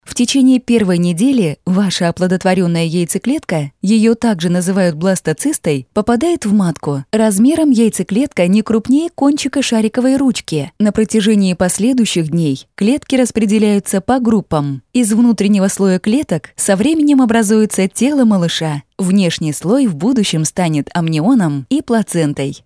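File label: Russian, middle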